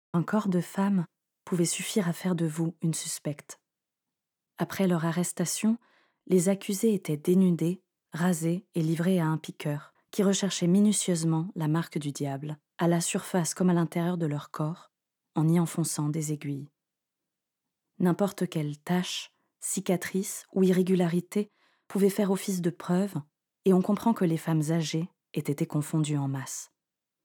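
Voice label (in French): LIVRE AUDIO (pour enfant), comédienne; « Mission Monstres » Lunii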